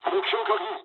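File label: near waypoint mood high, speech japanese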